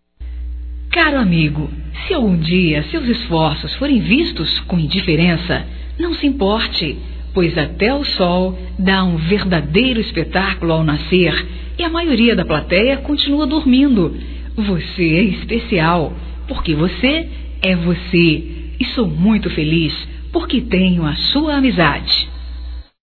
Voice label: Amizade Geral Feminino, Telemensagens, Só Voz; Telemensagens 2017

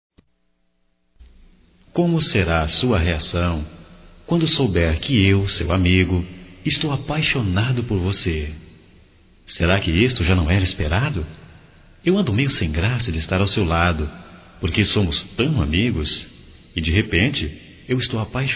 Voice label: Masculino; Amizade Que Virou Paixão Masculino

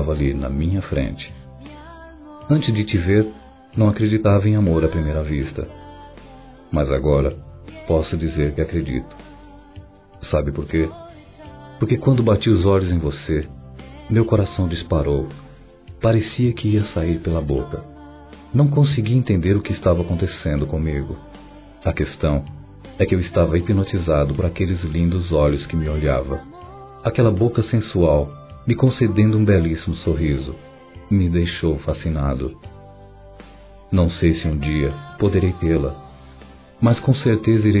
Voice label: Linda Mulher Masculino, Telemensagens

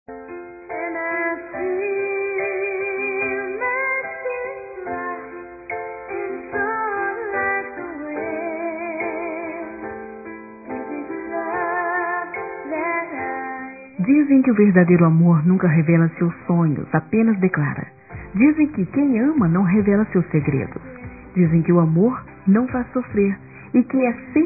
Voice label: TE GOSTO, fem; Dia Dos namorados; Telemensagens 2017

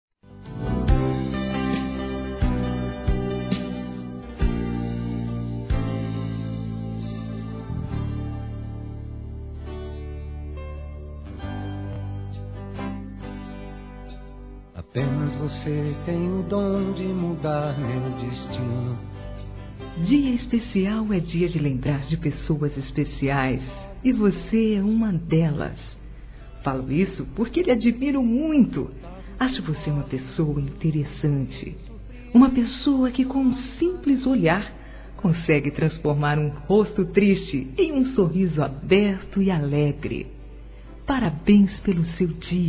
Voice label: PAQUERA F; ANIV.; Conquistas Cantadas paqueras; Telemensagens 2017

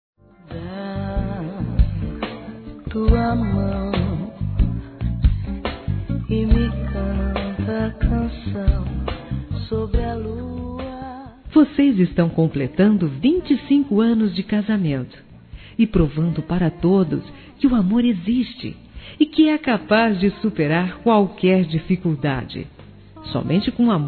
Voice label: Bodas de Prata Feminino; Telemensagens; Telemensagens 2017

